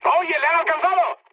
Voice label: aircraft destroyed mood high; speech; War Thunder

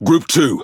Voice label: Speech Pilots Torpedo, EN GB; World Of Warships

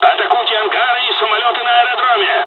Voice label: japanese first wave, dialogs russian; War Thunder

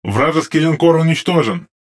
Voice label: RU Speech Destroy Enemy Battleship; World Of Warships; USSR Ovechkin

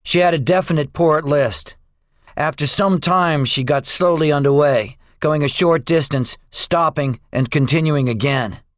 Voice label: debriefing, english; War Thunder

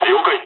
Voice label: dialogs japanese; War Thunder

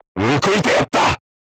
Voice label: voice message direct hit, crew tanks japanese

